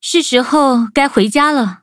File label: loading, zh-hant; Cookie Run